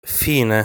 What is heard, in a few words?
"Fine", Italian